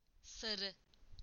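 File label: "yellow", Turkish; PictureDictionary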